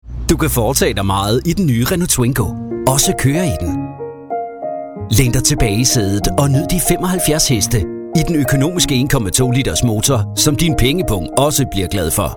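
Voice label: Danish commercial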